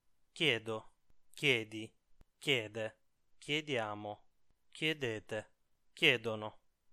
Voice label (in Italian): chiedere coniugazione, Italian